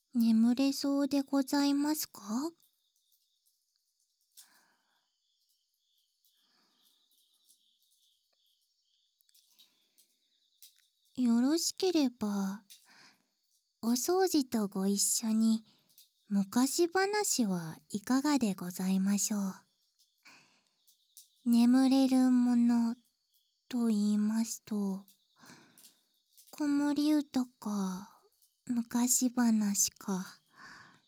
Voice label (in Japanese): 耳かき昔話; おやすみ